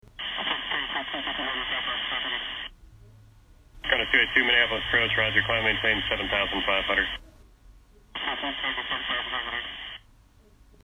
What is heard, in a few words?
ATC Audio